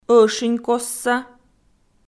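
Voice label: "ӧшинькостса", Komi, female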